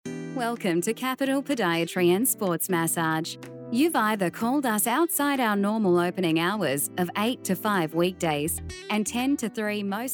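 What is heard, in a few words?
Australian Female Voice Talent Phone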